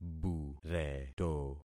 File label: "bureto", concat syllables; Affixing experiment